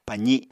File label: "pazi7", Apatani